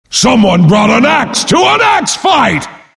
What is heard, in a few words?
announcer idle; Axe